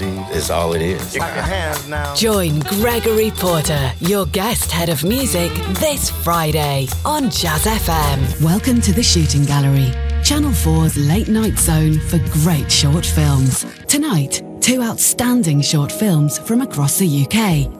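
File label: news tv radio promos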